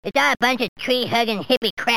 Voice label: Treehug, Furby